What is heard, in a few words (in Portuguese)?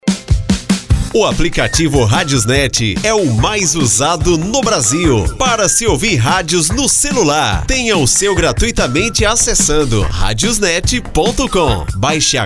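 O mais usado no brasil longo, masculino; RadiosNet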